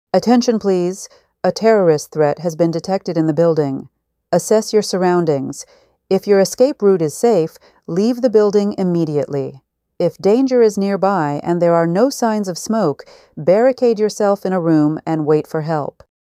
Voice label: emergency announcement security threat shelter or evacuate; emergency voice announcements